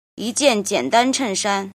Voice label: "jin", Chinese